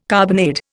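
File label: "carbonade", Indian English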